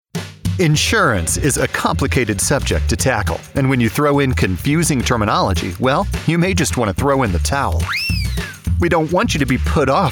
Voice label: corporate narration